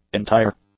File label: "entire", English; adjectives